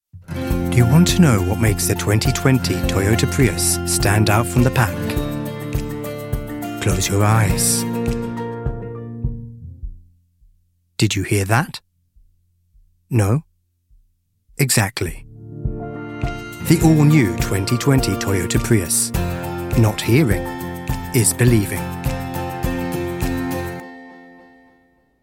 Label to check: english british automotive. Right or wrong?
Right